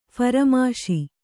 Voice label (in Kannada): "ಫರಮಾಶಿ", Kannada